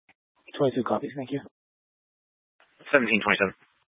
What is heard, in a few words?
Fire Department Dispatch